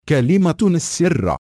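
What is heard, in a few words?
Arabic Password; AXEFIAudio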